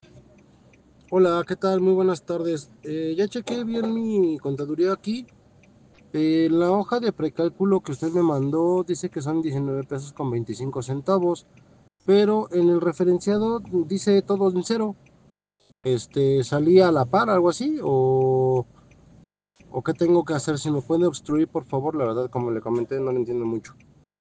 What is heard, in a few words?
whatsapp ptt